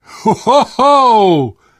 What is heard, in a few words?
violence awe, survivor voice